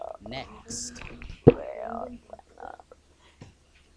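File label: "pick", spoken word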